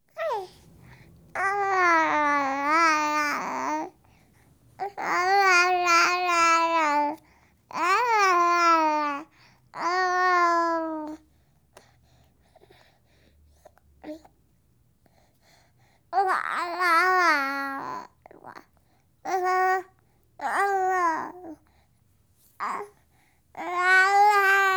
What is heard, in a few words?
Month Old Girl, Talking Nonsense, Babies; BBC Sound Effects Library